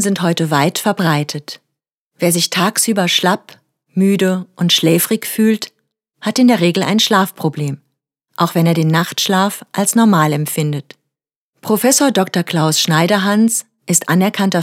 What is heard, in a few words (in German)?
Infomercial Medizintipp